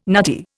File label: "nutty", Indian